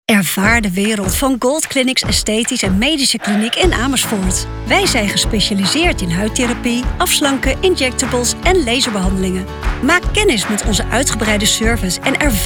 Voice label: Commercial; Gold Clinics